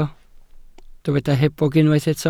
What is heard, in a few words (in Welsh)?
"hebog", Welsh